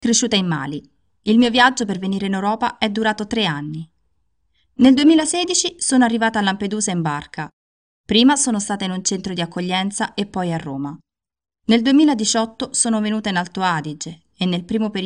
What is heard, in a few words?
Italian